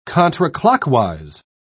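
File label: "contraclockwise", British English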